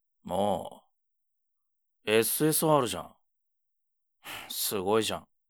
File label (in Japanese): homeru, ASMR